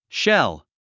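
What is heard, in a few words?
"shell", English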